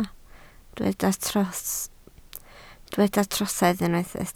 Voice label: "trosedd" Welsh

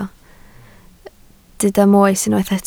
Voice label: "moes" Welsh

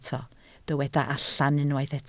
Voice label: "allan", Welsh

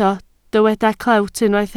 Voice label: "clewt", Welsh

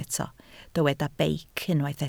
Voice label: "beic", Welsh

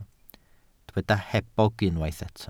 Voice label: "hebog", Welsh

